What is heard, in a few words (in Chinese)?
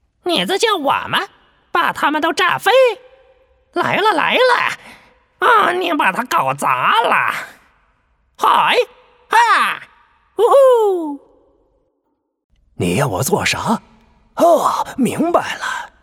游戏样音男; 钱德勒沉浮贝莱斯